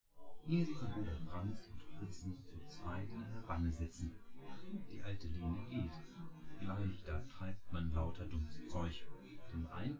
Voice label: male synth conv 1m; SASSEC07

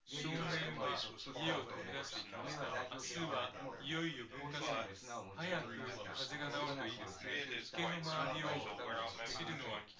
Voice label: male synthconv

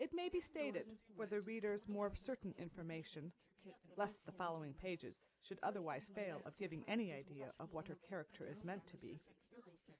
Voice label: female inst src; SiSEC08; SiSEC underdetermined

